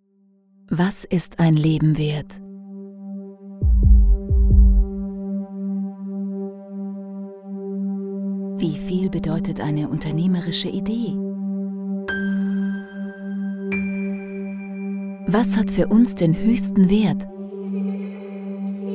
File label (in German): Imagefilm; SecCon Group